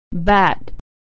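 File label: "bat", American English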